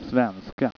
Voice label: "svenska", swedish

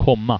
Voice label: "komma", Greek

